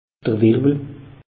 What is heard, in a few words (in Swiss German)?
"wirwel", alsace; meteo